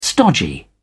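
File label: "stodgy", English, British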